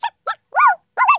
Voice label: vox droid